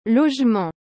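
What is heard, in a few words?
"logement", French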